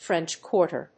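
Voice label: "French Quarter", English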